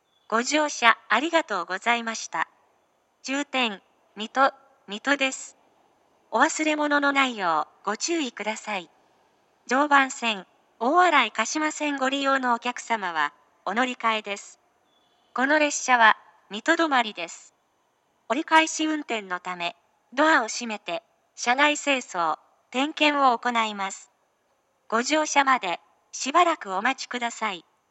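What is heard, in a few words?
platform 3 terminal arrival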